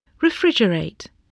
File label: "refrigerate", spelling voice